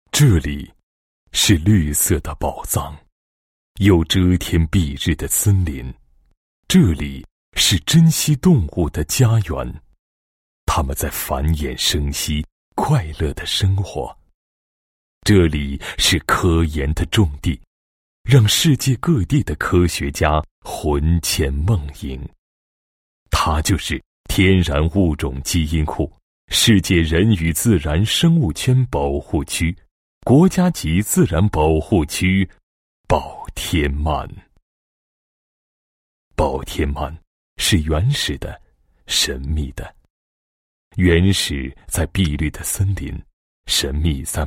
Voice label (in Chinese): 男 地区介绍 国家级自然保护区 宣传介绍; 宝天曼